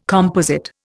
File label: "composite", Indian